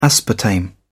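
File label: "aspartame", English, British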